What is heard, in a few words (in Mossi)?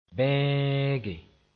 "bẽege", moore